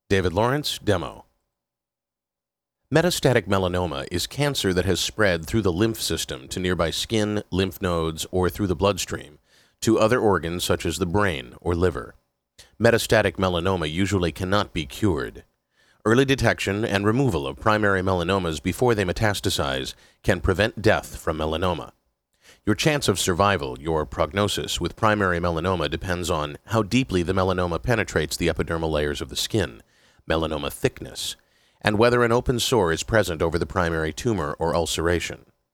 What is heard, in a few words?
vo medical